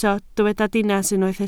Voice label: "dinas", Welsh